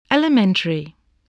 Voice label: "elementary", English